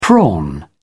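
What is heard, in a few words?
"prawn", English, British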